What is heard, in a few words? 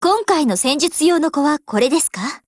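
BUILD OVER, Japanese; GF Alarm; AR15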